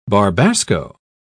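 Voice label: "barbasco", British English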